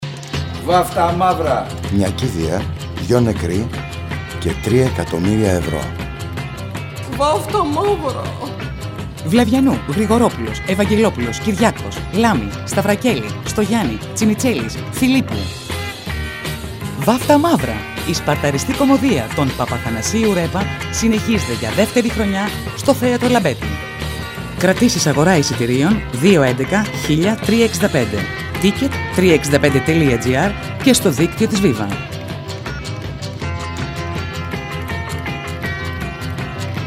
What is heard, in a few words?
Radio Spot; με ουρά